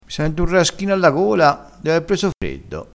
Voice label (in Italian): "raschino", vocabolario dialettale